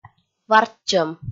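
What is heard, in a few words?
"варччӧм", Komi, female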